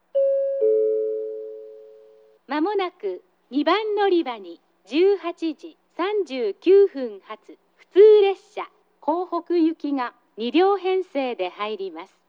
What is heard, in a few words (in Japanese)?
2番のりば接近予告放送; 普通 江北行き; takeo onsen